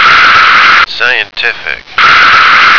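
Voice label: "scientific", American English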